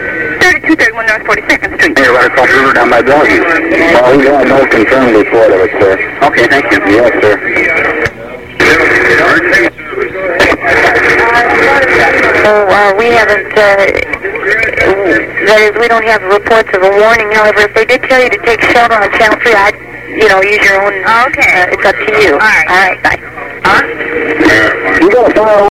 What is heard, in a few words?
911 Emergency Tornado